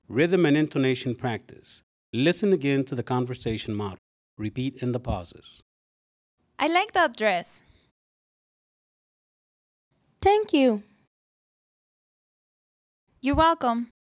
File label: Conversation Time Intonation Practice